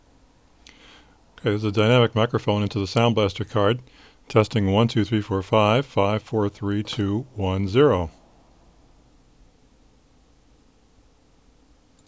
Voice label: Mic Test